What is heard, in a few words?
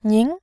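"nin", Mandarin, tone 2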